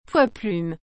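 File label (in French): "poids plume", French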